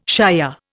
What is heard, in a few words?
"shier", Indian